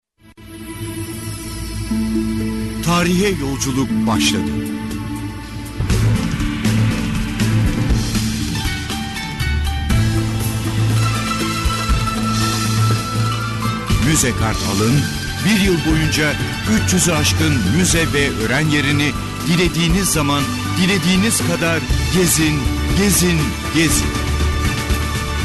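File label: Turkish, male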